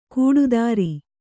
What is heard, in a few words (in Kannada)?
"ಕೂಡುದಾರಿ", Kannada